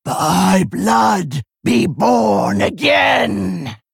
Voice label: female orc, Play